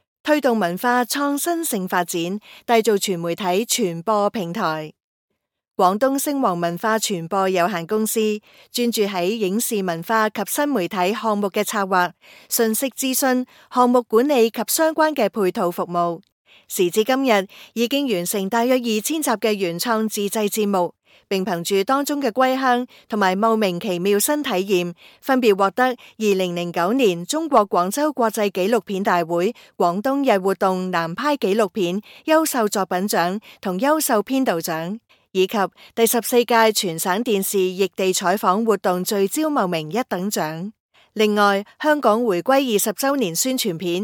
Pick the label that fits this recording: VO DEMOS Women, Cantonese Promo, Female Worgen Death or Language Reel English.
Cantonese Promo